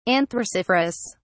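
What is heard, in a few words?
"anthraciferous", British English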